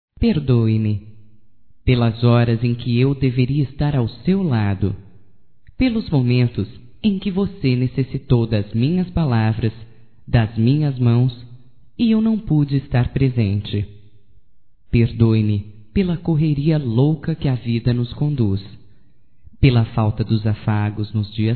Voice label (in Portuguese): PERDAO(F), Românticas fem